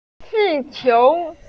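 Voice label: "qi4 qiu2", Mandarin Chinese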